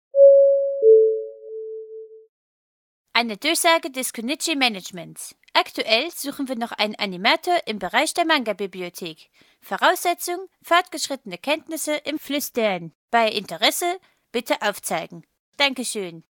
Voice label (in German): durchsage